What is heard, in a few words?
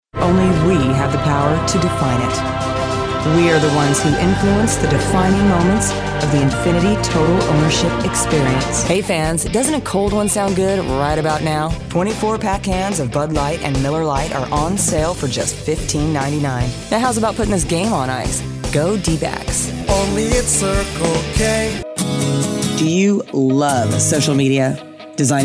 Commercial, Female voices